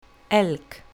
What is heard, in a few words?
"elk", English; wild animals; vocabulario; colour your english